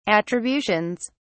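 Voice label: "attributions", British English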